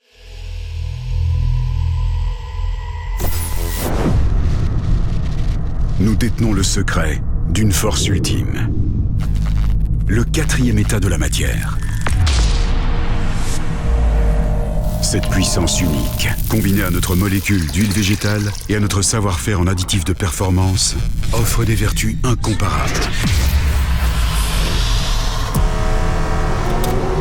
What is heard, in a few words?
Advert Low Dynamic Strenght, werbesprecher französisch; Bardhal